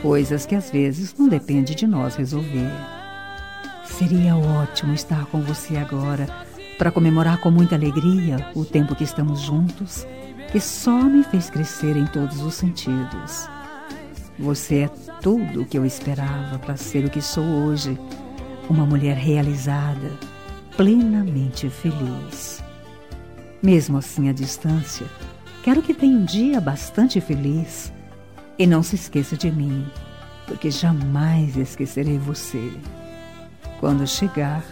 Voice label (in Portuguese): dia dos namorados distante, voz feminina; dia dos namorados para namorado distante